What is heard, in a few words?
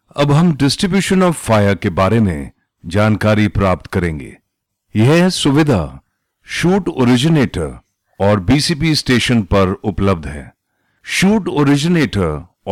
elearn hindi